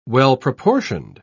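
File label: "well-proportioned", British English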